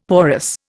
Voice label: "porous", Indian; Dictionary

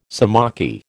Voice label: "sciamachy", American

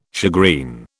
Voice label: "shagreen", British

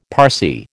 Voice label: "Parsee", American

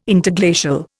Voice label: "Interglacial", Indian

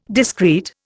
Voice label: "discreet", Indian

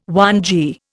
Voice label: "whangee", Indian

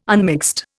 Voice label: "unmixed", Indian

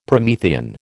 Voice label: "Promethean", American

